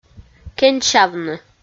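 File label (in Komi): "кеньсявны", Komi, female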